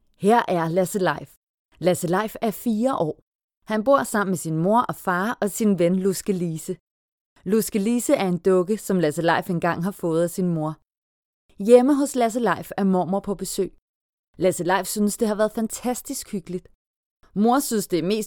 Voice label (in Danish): lydbog